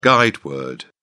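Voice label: "guide word", British English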